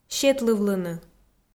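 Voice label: "сетлывлыны", Komi, female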